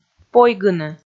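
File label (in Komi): "пойгыны", Komi, female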